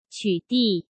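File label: "取缔", Mandarin Chinese; hsk; chinese flashcards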